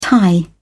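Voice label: "tie", British English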